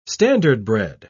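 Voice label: "standardbred", British English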